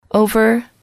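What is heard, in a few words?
"over", English, female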